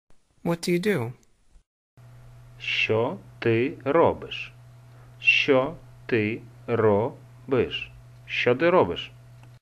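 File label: Ukrainian, What do you do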